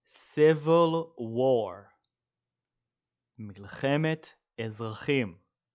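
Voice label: "civil war" English, "מלחמת אזרחים" Hebrew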